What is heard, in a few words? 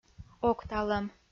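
"окталӧм" Komi-Zyrian, female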